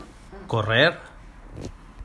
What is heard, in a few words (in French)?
espagnol courir